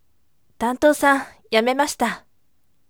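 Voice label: voice, firm; tantousan yamemashita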